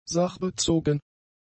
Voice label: "sachbezogen", german; adjectives

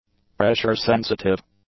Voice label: "pressure-sensitive", english; adjectives

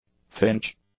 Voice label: "finch", English; nouns

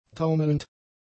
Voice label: "taumelnd", German; adjectives

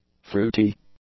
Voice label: "fruity", English; adjectives

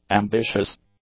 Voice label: "ambitious", English; adjectives

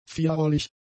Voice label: "vierrollig", German; adjectives

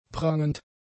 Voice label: "prangend", German; adjectives

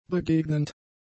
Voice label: "begegnend", German; adjectives

